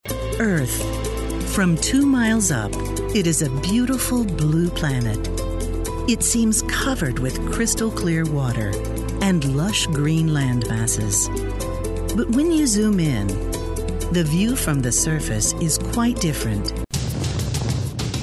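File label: Narration Female, Rich, Solid, Natural; Narration, Corporate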